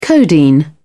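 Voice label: "codeine", English, British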